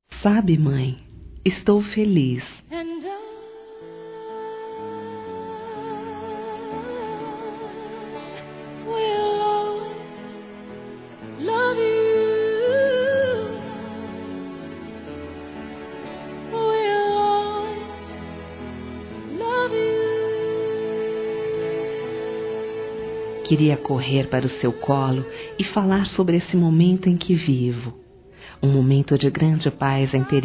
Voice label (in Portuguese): MÃE VOCÊ VAI SER AVÓ F; fem